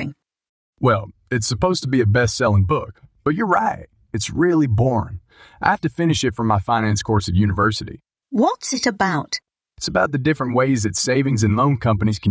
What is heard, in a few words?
Library Dialogue